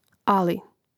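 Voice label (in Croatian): "ali", Croatian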